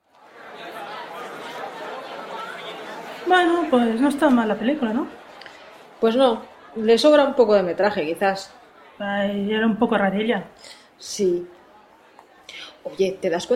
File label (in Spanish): PROMO, podcasts; ATMOSFERACERO